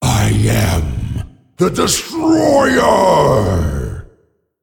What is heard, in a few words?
Male Dragon Hero Power; Deathwing; Thrall